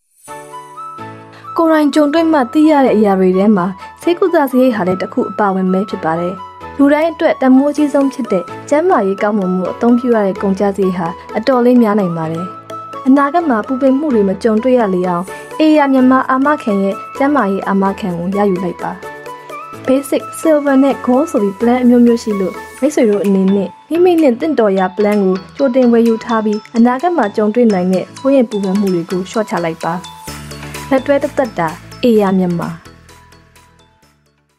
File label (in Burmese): Burmese; AYA